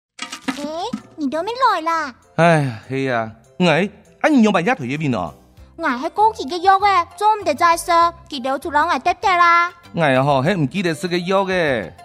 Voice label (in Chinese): 家庭用藥總檢查-客語版